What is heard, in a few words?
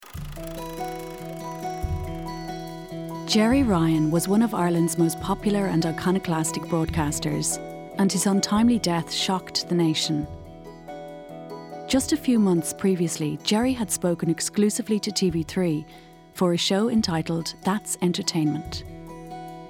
Sample narration